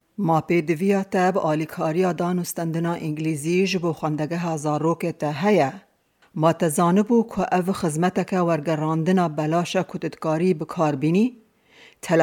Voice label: kurdish kurmanji; public schools; going to a public school